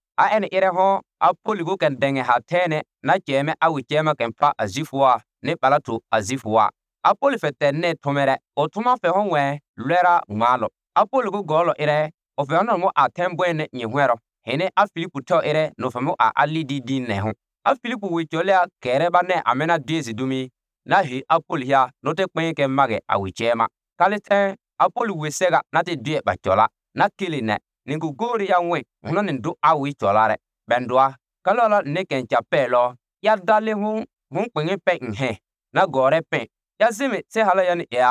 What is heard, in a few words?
Pougouli; Leglise a Philippi donne; The Church in Philippi Gives